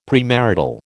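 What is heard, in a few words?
"premarital", American English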